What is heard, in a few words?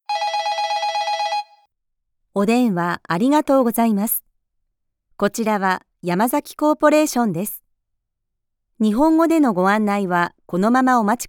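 japanese interactive voice response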